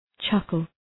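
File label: "chuckle", English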